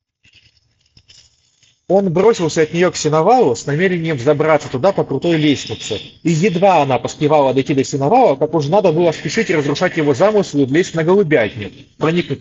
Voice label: Mic noise; sony wh-1000xm6